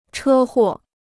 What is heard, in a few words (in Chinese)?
"车祸", Chinese